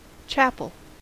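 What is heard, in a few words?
"chapel", US English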